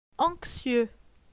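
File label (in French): "Anxieux", French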